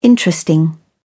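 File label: "interesting", English, British English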